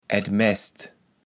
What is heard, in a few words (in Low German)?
"et maest", kaevels platt